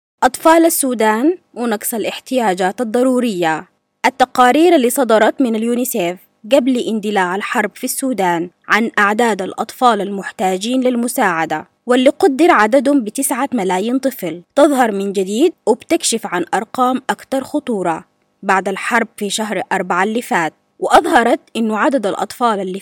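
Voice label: Arabic, General, Sudanese; GoLocalise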